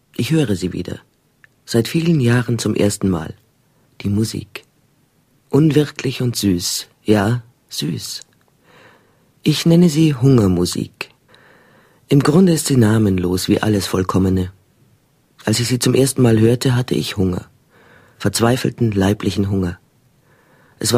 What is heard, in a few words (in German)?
hoerfunk prosa